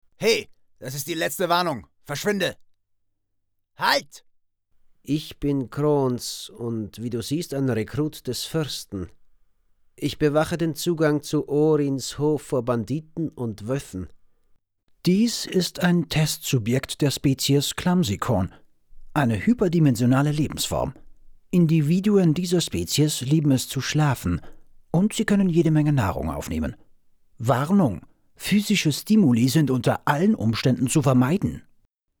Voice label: character showreel

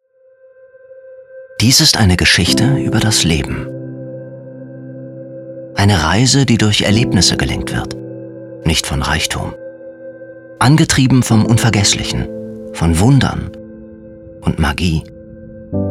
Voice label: Emo, German; voice

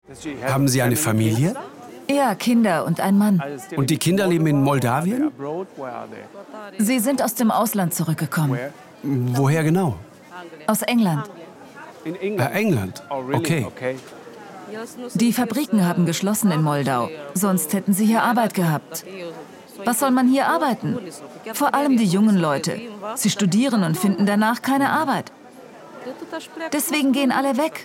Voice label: VoiceOver; Markus Lanz; Moldawien ungeschminkt; ZDF

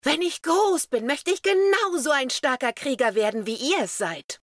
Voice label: Young Child

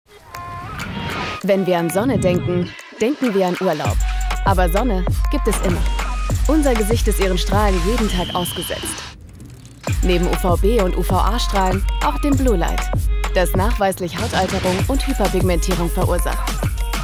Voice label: TVC, Commercial (Werbung), German; Avène Intense Protect

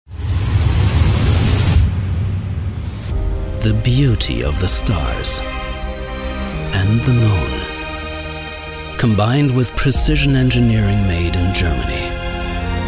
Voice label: Voice ENGLISH; Veredon

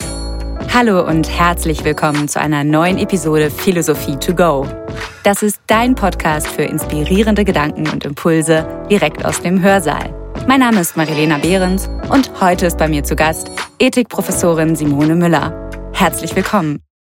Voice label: Podcast

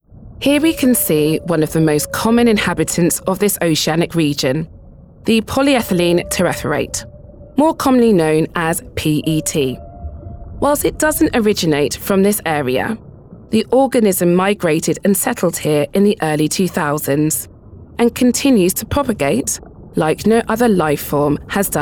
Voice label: Commercial (Werbung), English UK; Zeitgenössische Entwicklungen in Wissenschaft und Technologie; LOFT VOICES

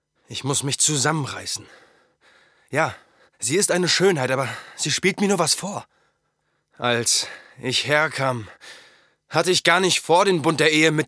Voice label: Anime

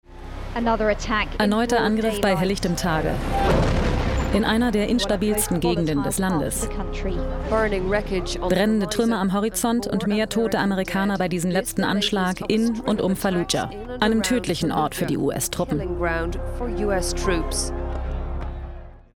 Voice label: Voice Over News; Fresenius

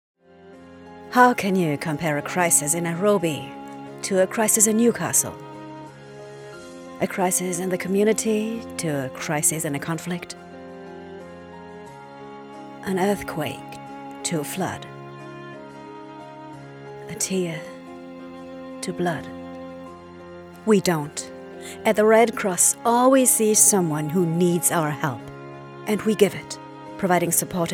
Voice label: PSA en

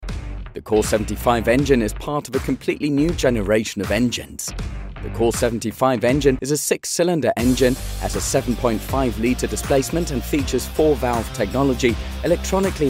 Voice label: ENGLISH Tractors; Fendt; LOFT VOICES

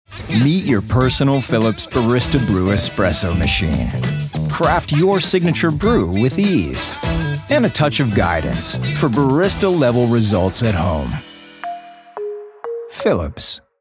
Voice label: warm; Philips Barista Brew Espresso Machine

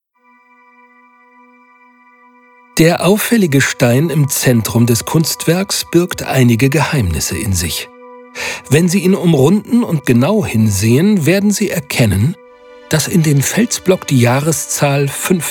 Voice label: Audioguide; Schliefstein

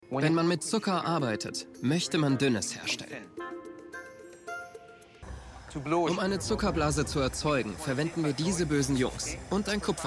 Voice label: Voice Over; School Of Chocolate

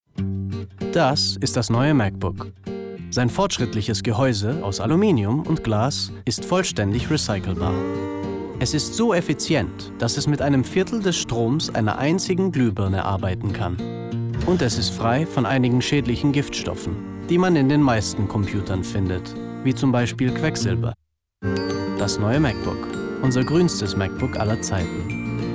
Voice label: German; Macbook

